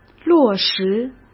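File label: "落实", Mandarin Chinese; chinese-flashcards; hsk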